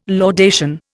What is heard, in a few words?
"laudation", Indian